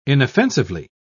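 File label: "inoffensively", British English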